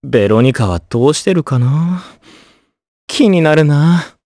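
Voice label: voice, Japanese; Evan; kingsraid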